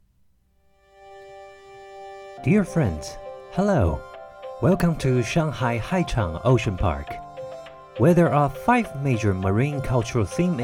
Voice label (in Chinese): 有伴奏海洋公园英文宣传